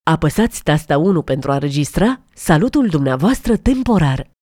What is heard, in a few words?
romanian Record Temporary Greeting